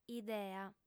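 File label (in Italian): "idea", lingua sarda, female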